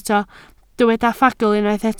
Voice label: "ffagl", Welsh